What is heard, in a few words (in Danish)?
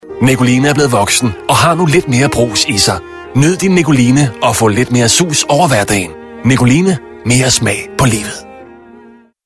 Reklame